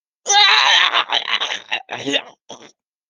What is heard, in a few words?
voice message